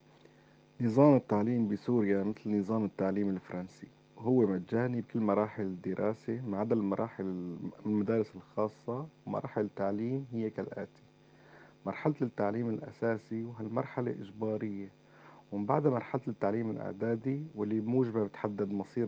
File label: North Levantine Arabic, Monologues; Alep; UFAL Speech Corpus of North Levantine Arabic 1.0